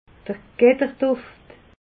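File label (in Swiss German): "getterduft", Alsatian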